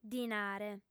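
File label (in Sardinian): "dinare", female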